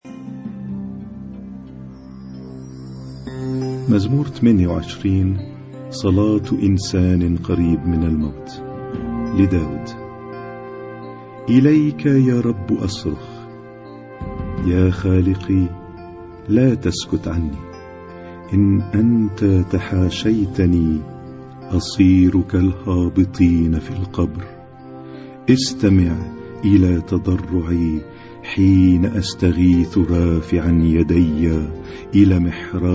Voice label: Arabic Psalm Zaboor